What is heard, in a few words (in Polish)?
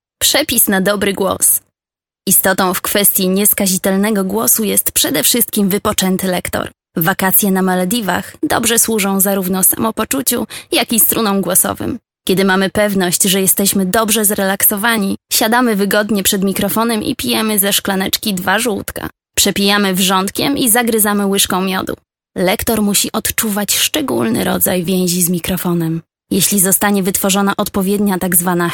Polski